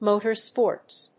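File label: "motor sports", English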